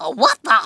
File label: "what the", Kamikaze; Worms Armageddon